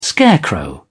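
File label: "scarecrow", British English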